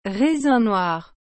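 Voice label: "raisin noir", French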